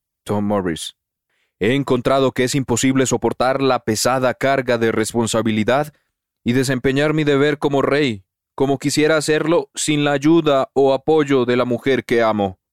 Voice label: spanish latin american neutral tv shows